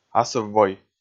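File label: "асыв-вой", Komi, male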